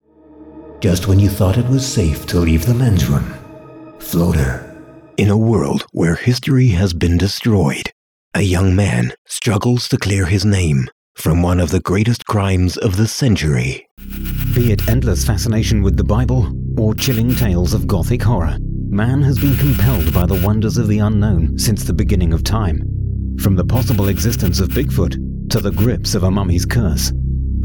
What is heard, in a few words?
english british movie trailers